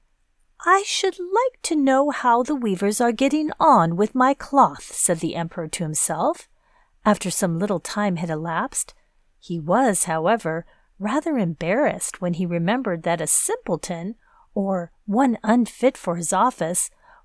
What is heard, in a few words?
Audiobook